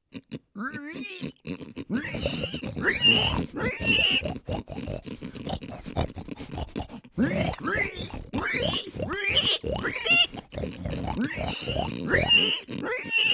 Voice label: Creature Monster Voice Over